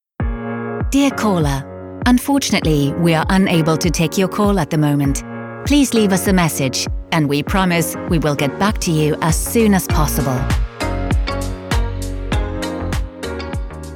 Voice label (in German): Telefonansage en; Muttersprache Deutsch, Englisch/UK, Englisch/US-Amerik; allbranded